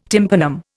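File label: "tympanum", Indian English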